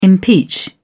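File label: "impeach", British English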